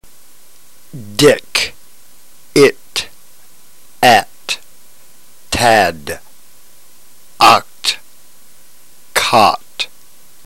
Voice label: drill, ntgreek

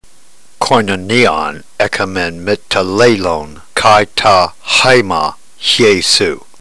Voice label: drill, ntgreek

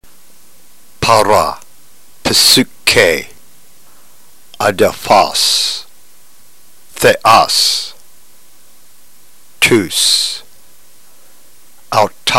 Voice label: drill, ntgreek

